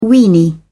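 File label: "weeny", British English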